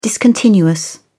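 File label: "discontinuous", English, British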